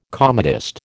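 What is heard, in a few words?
"comedist", American English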